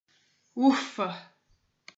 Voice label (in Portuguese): "ufa", Portuguese